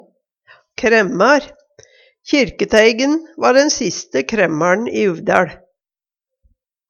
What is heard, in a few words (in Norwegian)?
kremmar, numedalsmal